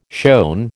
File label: "shown", American